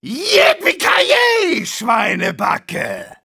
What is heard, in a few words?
Engineer cheers, de